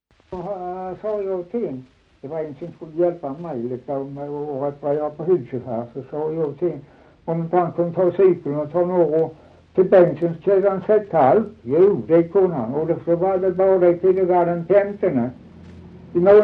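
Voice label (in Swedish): Dalby Skåne, dialekter; Folkminnen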